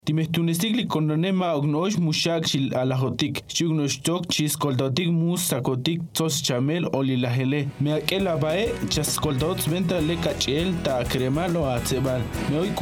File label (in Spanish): Tsotsil